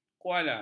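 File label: "kuala", Malay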